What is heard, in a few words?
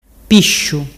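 "pisciu", Ligurian dialect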